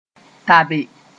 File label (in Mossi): "tabe", moore